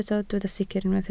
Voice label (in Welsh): "sicr", Welsh